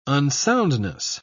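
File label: "unsoundness", British English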